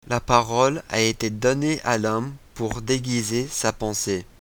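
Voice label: proverb, French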